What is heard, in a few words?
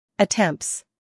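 "humor", British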